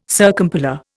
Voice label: "circumpolar", Indian